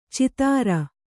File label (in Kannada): "ಛಿದ್ರ", Kannada